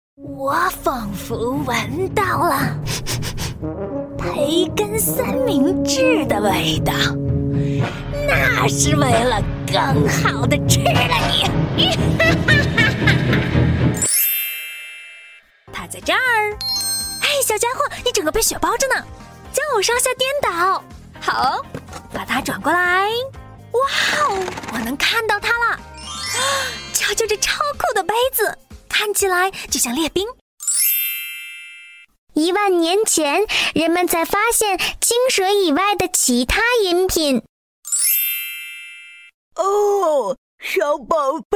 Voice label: chinese mandarin video games

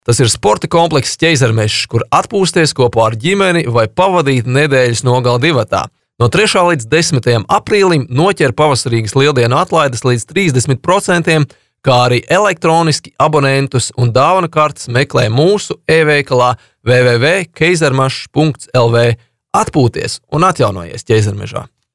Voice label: latvian commercial

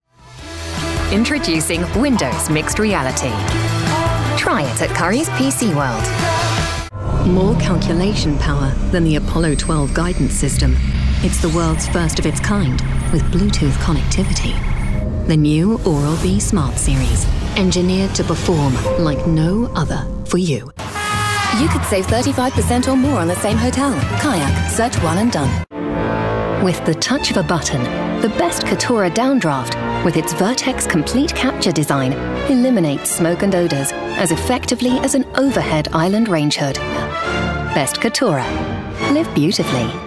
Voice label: english british commercial

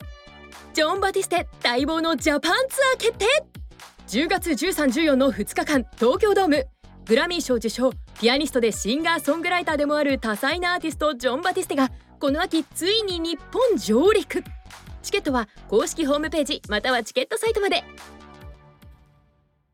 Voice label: japanese movie trailers

